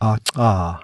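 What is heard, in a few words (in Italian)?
Click Alveolare laminale ("dentale"), consonanti non polmonari; IPA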